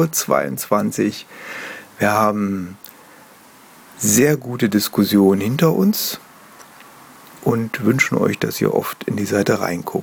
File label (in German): Grüße vom Sommerseminar ohne musik